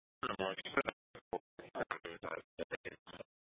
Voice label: Mesa County Sheriff Dispatch